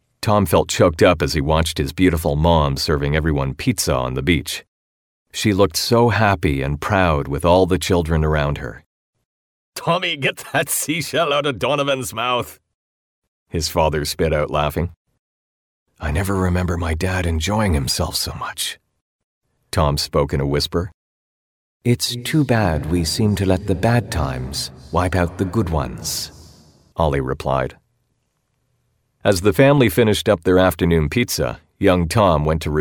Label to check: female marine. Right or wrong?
Wrong